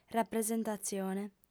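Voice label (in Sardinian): "rapresentatzione", female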